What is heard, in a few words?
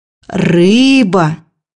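"fish", Russian; bukvar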